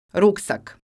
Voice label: "Ruksak", croatian

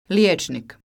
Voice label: "Lijecnik", croatian

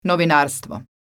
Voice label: "novinarstvo", Croatian

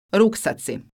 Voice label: "Ruksaci", croatian

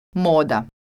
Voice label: "moda", Croatian

